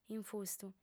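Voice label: "infustu", female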